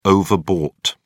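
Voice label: "overbought", British English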